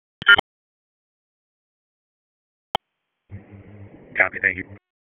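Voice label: Sheriff's Office Dispatch 1, p25; WSO DISP 1; wake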